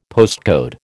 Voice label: "postcode", American

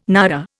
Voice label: "naira", Indian

